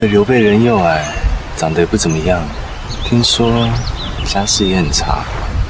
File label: drama